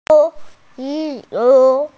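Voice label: "shi2 wu4", Mandarin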